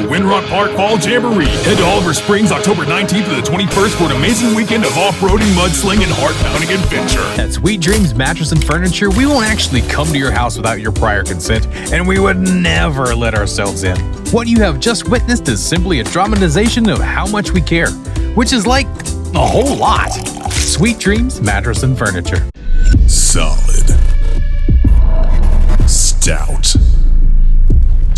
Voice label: Commercial